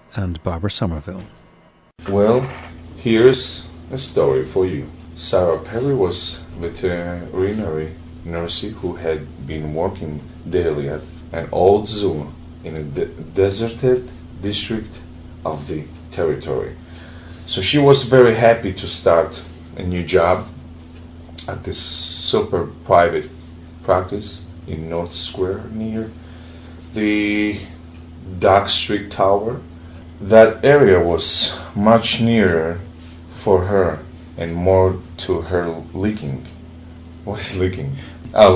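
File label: georgia, International Dialects of English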